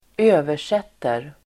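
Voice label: "översätter", Swedish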